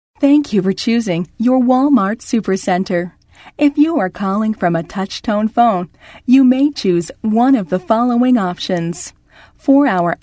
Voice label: Voice Prompt